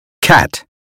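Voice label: "cat", American phonetics; 英语音标发音（剑桥版）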